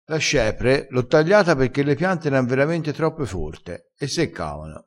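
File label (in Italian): "forto", vocabolario dialettale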